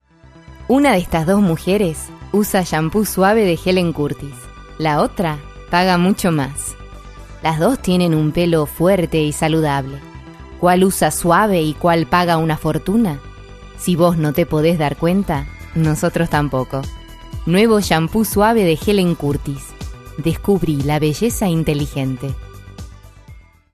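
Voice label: Latin American Spanish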